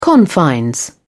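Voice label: "confines", British English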